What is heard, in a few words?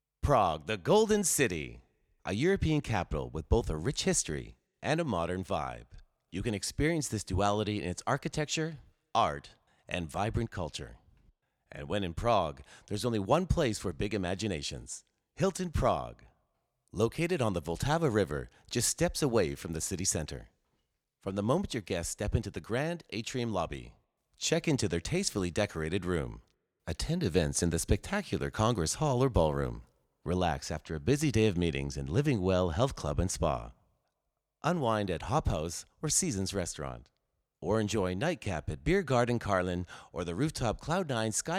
Voiceover; Hilton